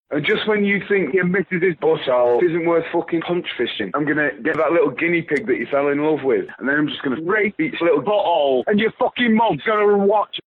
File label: Rant